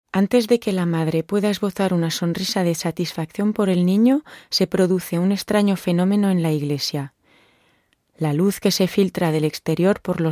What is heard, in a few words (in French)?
Narration espagnol; Enregistrements dans la cabine speak